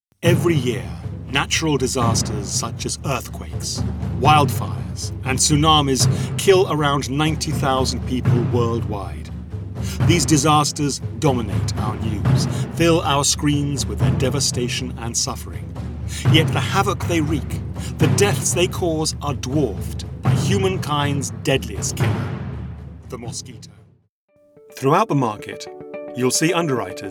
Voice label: Corporate